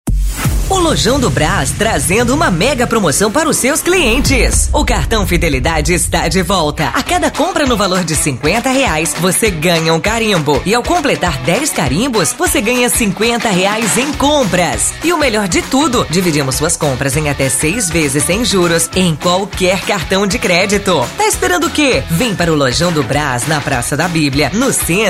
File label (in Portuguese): LOCUTORA; comerciais; LOJAO DO BRAZ